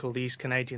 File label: called east canadian London